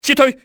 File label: man down, enemy cantonese male; XBOX